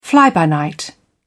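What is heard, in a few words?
"fly-by-night", British English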